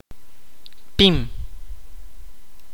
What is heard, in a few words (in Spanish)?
"grueso de objetos planos", Kaqchikel